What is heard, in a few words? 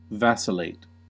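"vacillate", US English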